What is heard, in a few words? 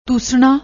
"toosna", Punjabi